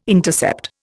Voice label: "intercept", Indian